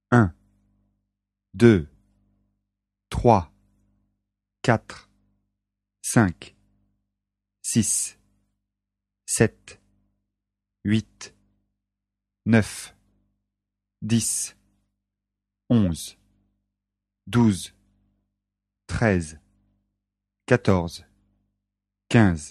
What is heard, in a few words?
number 0 20 french